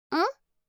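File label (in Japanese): "ん?" Japanese, システムボイス; Windows向けシステムボイス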